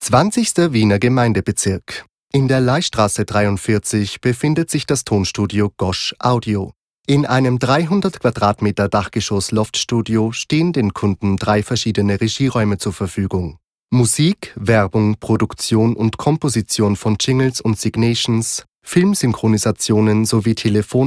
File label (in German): sachlich